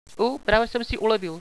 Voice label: relief, hostage